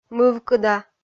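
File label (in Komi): "мывкыда", Komi, female; kpv audio dict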